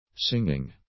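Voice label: "singing", English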